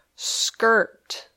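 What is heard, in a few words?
"skirt", English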